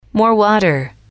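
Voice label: "more water", English, female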